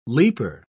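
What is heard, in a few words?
"leaper", English, British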